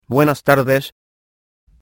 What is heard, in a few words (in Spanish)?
good evening, es